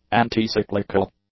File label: "anticyclical", English; adjectives